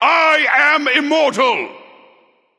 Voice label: vox emote; smite; ra; voicepack

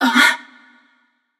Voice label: confused; smite; voicepack; nemesis

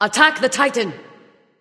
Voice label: vox attack; smite; skadi

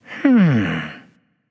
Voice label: Thinking; smite; susano

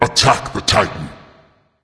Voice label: vox attack; smite; thanatos

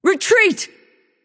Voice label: vox retreat; smite; arachne

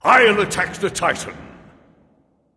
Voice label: vox self attack; smite; ra; voicepack

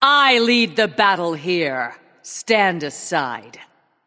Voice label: taunt directed; smite; hera; bellona

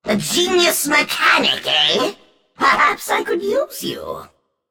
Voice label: taunt directed; smite; hades; vulcan

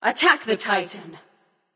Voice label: vox attack; smite; nox; voicepack

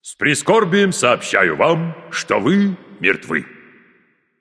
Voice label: slain you, russian; caster

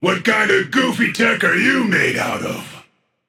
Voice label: taunt directed; janus; Smite; bakasura

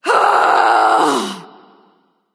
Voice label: battlecry; Smite; athena

